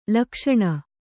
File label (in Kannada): "ಲುಕ್ಸಾನ", Kannada